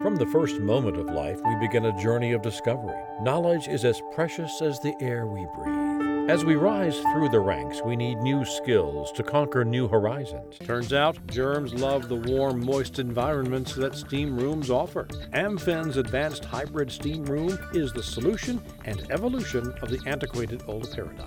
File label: Corp Narration